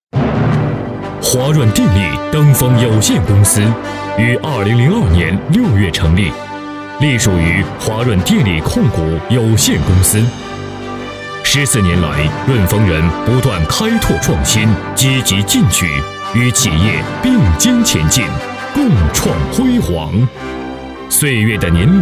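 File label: Advertisement male Chinese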